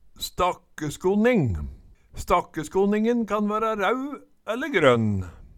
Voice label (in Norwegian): stakkeskonning, Numedalsmål